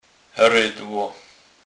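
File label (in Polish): "redlo", Kurpie dialect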